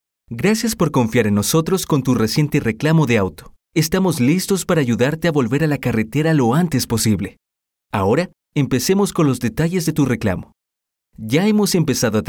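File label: Spanish Latin America male voice over, narrative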